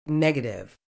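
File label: "negative", British English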